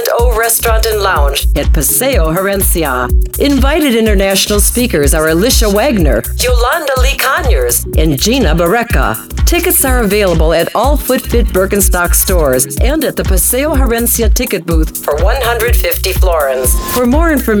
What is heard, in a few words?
Extra Women Radio Ad